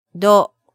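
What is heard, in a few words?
"do", Japanese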